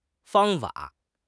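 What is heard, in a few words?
"fang1 fa3", Mandarin Chinese; HSK; HSK4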